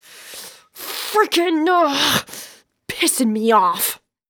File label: Waitress Anger; zps